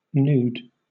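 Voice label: "nude", British English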